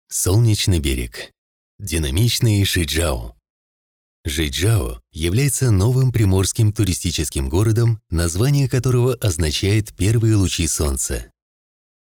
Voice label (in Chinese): 外籍俄语男-无人机-宣传片; 航拍中国